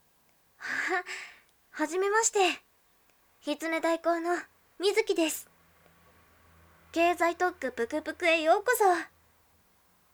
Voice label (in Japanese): 声色調整・初接客・初々しく元気に; 水葵ちゃん; 出稼ぎ狐さん